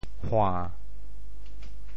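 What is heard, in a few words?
"hua~7", Teochew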